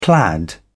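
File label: "plaid", British English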